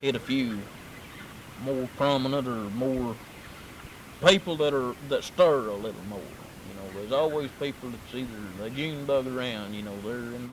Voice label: Conversation with 30 year old white male Pettigrew Arkansas, american english dialect recordings